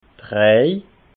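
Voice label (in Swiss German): "drei", Alsatian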